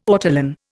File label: "ortolan", Indian